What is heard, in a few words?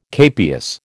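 "capias", American English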